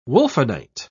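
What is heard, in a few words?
"wulfenite", English, British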